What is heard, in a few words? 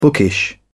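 "bookish", British English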